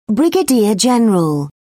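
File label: "brigadier general", British English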